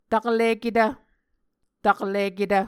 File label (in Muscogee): "takliketv", Muscogee